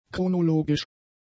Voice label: "chronologisch", German; adjectives

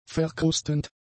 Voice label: "verkrustend", German; adjectives

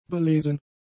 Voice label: "belesen", German; adjectives

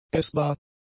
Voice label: "essbar", German; adjectives

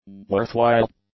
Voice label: "worthwhile", english; adjectives

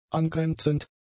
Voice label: "angrenzend", German; adjectives

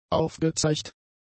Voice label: "aufgezeigt", German; adjectives

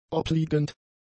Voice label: "obliegend", German; adjectives

